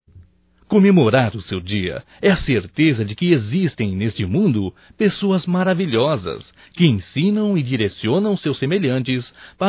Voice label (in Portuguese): PROFESSOR ( M ); Profissionais; masc; Telemensagens 2017